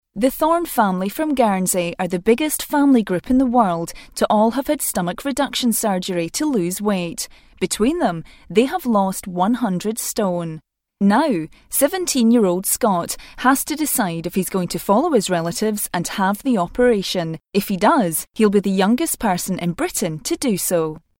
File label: documentary